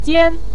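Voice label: "jian" neutral tone, Mandarin Chinese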